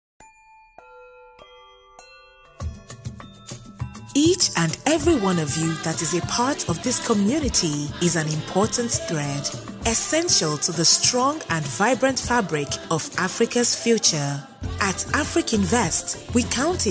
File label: English African corporate videos